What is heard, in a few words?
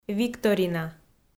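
"викторина", Komi, female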